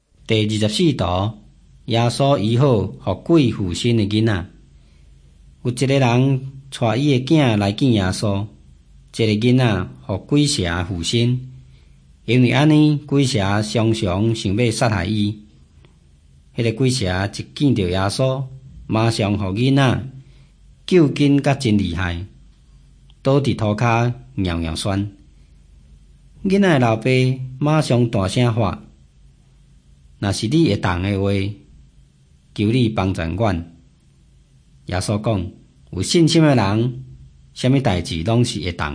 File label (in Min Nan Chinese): taiwanese, drama; hokkien; taiwanese min nan todays taiwanese version nt drama; jesus teacher and healer